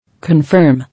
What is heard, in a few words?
"Confirm", English